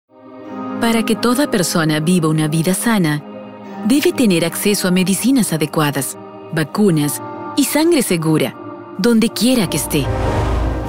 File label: corporate spa